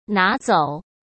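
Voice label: "拿走", Mandarin Chinese; chinese flashcards; hsk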